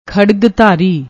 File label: "khadagdhari", Punjabi